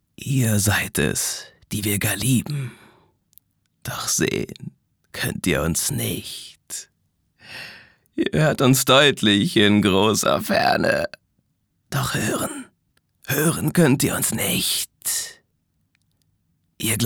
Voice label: psycho, maenner

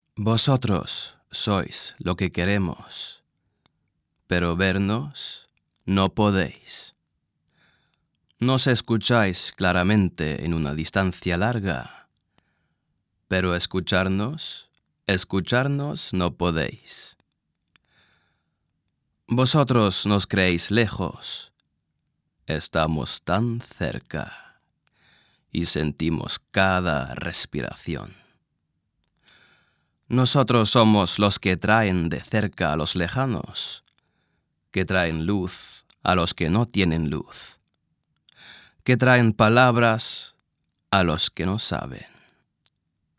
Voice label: Gedicht, Spanisch, maenner

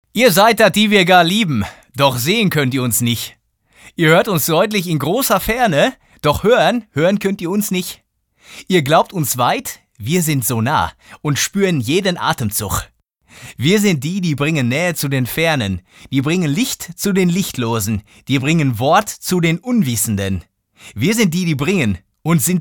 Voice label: Ruhrpott, Dialekt, maenner

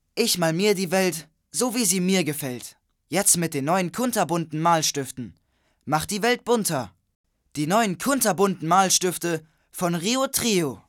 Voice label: Jungen

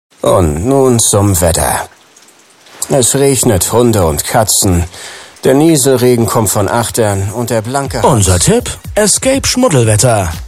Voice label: Dialekt norddeutsch, maenner; escape

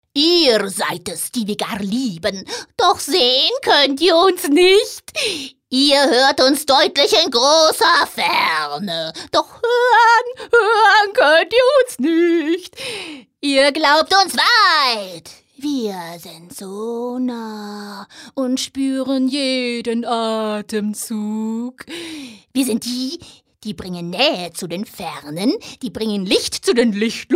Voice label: Psycho, frauen; sprecher

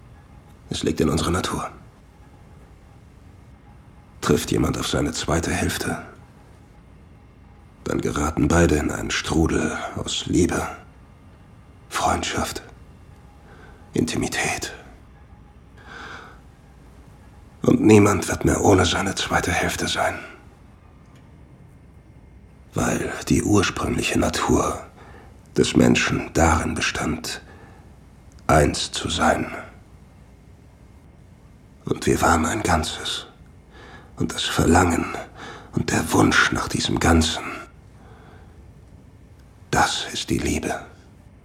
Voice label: Synchron

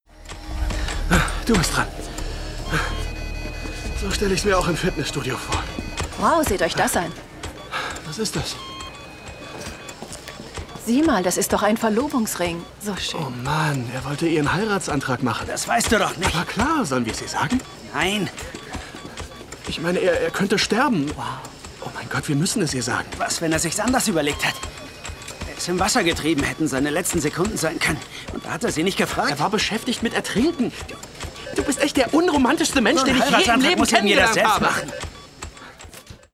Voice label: Synchron, maenner; Code Black

